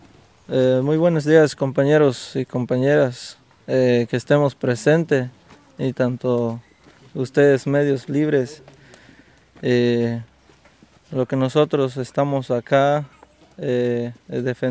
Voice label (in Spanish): mensaje desde bachajon ESP; Mexico